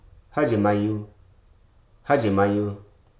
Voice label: "idle", Hruso